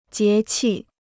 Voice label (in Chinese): "节气", Chinese